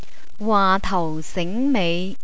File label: "waatausingmei", Cantonese